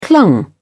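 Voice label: "clung", English, British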